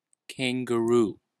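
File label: "kangaroo", American English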